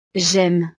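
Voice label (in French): "jaime", French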